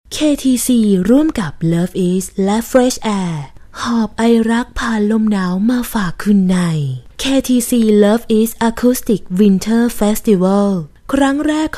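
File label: thai female voiceover locutora tailandesa; Tailandia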